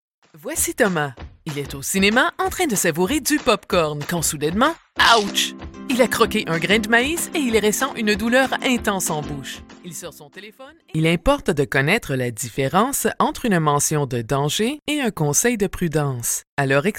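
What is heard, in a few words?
Female, French, Canada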